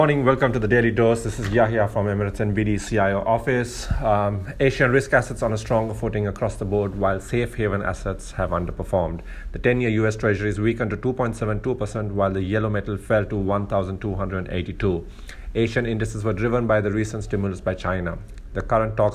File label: voice note; fixed income